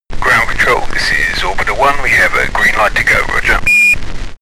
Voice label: astronaut chatter

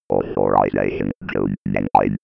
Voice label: computer voice authorisation

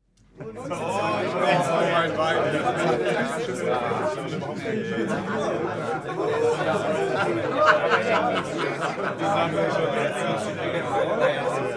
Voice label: talk happy short

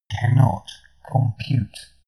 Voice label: friendly male system status

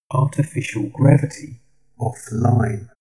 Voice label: male system status

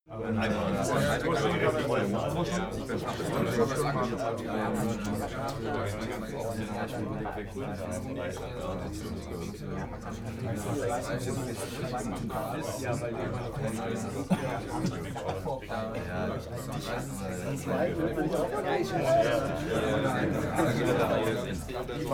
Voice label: talk german neutral long

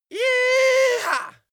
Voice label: male victory yee-haw

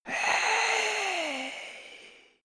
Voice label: male whisper "hey"

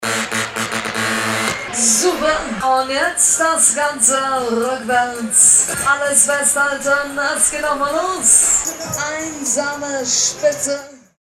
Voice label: octoberfest animateur

